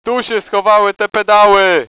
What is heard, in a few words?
nervous; cstrike; hosty